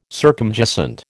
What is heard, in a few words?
"circumjacent", American